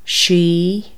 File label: she is, Irish, Consonants